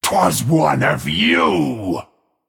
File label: Male Stoneborn Play